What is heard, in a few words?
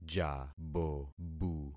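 "jabobu", concatenated syllables; Affixing experiment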